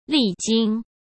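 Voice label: "历经", Mandarin Chinese; hsk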